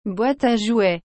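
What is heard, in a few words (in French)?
"boite a jouets", French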